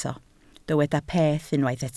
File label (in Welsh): "peth", Welsh